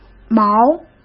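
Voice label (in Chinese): "毛", Mandarin Chinese; hsk; chinese-flashcards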